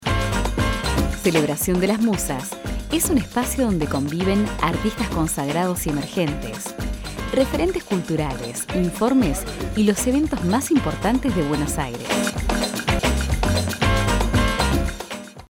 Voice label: spanish latin american commercial, argentinian spanish voice actors